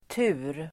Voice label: "tur", Swedish

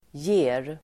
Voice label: "ger", Swedish

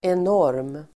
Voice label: "enorm", Swedish

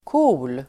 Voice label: "cool", Swedish

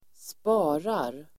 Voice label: "sparar", Swedish

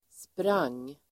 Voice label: "sprang", Swedish

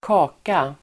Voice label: "kaka", Swedish

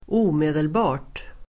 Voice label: "omedelbart", Swedish

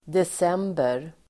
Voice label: "december", Swedish

